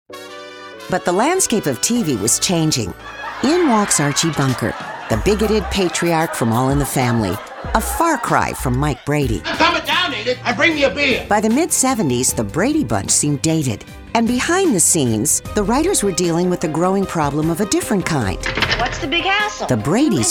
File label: narration